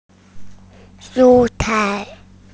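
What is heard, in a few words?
"shu1 cai4", Mandarin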